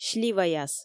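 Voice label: "сливаяс", Komi, female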